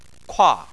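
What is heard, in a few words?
"kua" fourth tone, Chinese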